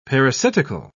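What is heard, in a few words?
"parasitical", British English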